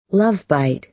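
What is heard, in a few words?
"love bite", American English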